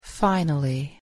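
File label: "finally", English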